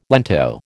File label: "lento", American